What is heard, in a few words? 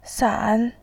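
"san", Mandarin Chinese, third tone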